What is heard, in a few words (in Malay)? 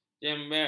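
"jembel", Malay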